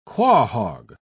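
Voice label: "quahaug", English, British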